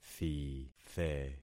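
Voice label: "thithe", concatenated syllables; Affixing experiment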